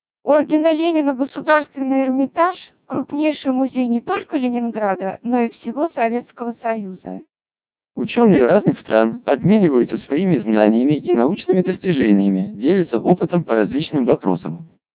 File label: Russian, CODEC2 1200 bps